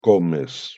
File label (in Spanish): "comes", pronunciation es